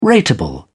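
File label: "rateable", British English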